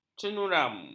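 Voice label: "cenuram", Malay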